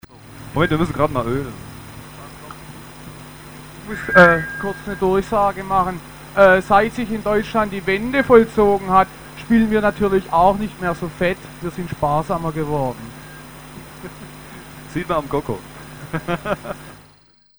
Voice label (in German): Ansage; Live in Weidenhahn